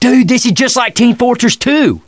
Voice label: chat; l4d2 ellis